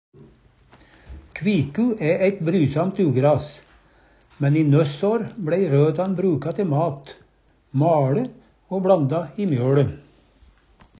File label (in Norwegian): kviku, Numedalsmål; Kviku æ æit brysamt ugras, men i nøssår bLæi røtan bruka te mat; maLe o bLanda i mjøLe.